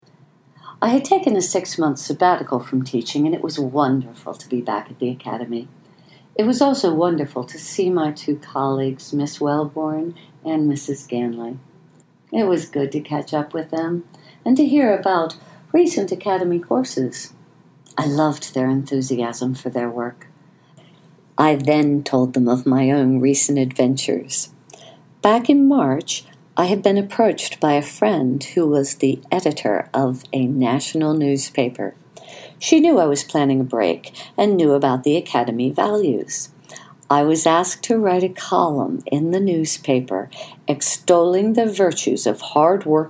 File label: narration